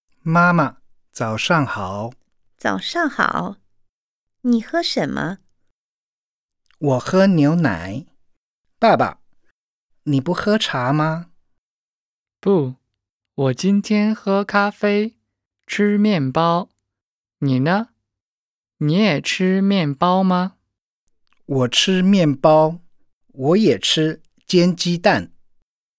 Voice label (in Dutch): dialoog